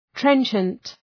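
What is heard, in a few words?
"trenchant", English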